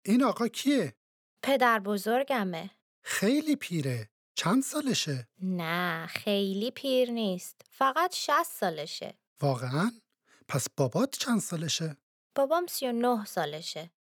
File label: Dialogue lesson Farsi